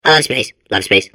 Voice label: babble; portal2